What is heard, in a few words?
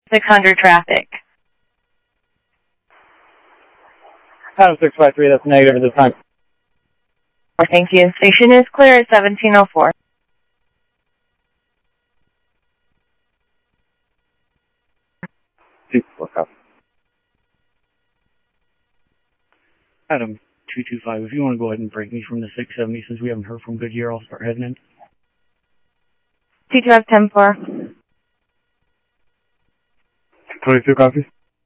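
Sheriff East County, scanner audio trunk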